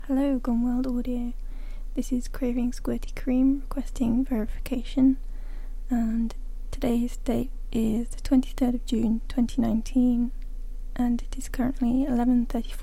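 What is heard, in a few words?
Female requesting verification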